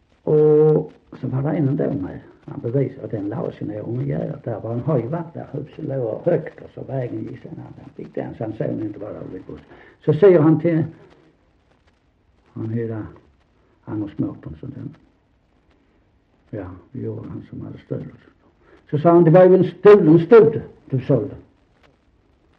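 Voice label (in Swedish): Sankt Olof Skåne, Svenska sagor, dialekter; Folkminnen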